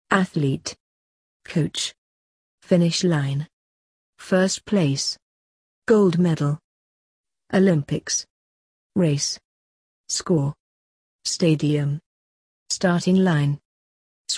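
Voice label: British; exercise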